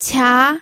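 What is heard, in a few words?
"qia", Mandarin Chinese, second tone; pinyin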